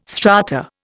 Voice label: "strata", Indian

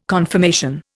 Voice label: "confirmation", Indian

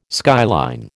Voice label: "skyline", American

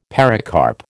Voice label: "pericarp", American

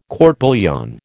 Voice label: "court bouillon", American

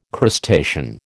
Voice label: "crustacean", American

